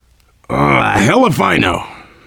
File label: getting revived, voice, biker; Left 4 Dead 2; survivor